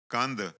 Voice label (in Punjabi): "kandh", Punjabi